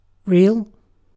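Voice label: "real", British English; pronunciations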